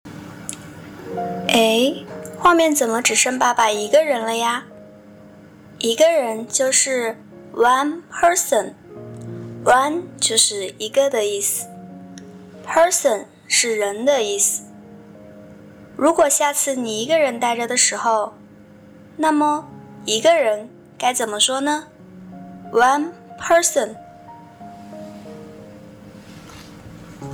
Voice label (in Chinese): 成 女声 童书 课件 配音